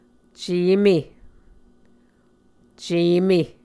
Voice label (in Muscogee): "ceme", Muscogee